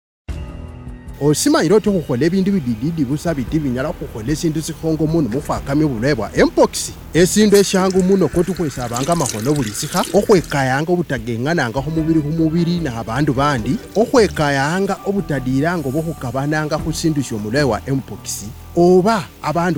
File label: Narrator, radio spots; MPOX; The MPOX Campaign Safe Circles